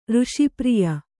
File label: "ಋಷಿಪ್ರಿಯ", Kannada